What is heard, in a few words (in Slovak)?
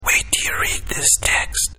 sms hlásky en